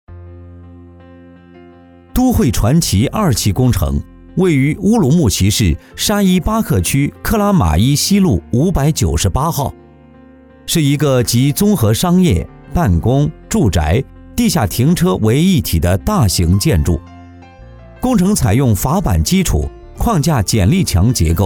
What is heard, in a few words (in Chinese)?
男 汇报; 都会传奇二期